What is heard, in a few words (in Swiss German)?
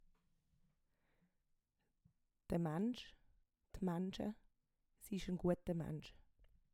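"de Maensch", Swiss German; Wortschatz Kapitel 1; Kapitel 1 Hauptwortschatz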